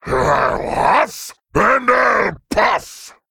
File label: Male Worgen Play